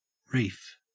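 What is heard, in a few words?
"reef", Australian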